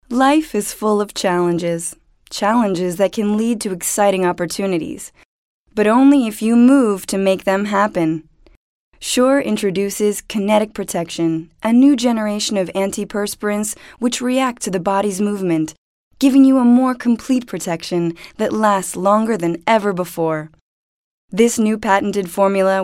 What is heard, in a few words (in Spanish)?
Ingles; Casting Impulse